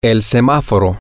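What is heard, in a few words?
Spanish, the traffic light, male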